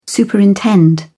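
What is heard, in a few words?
"superintend", English, British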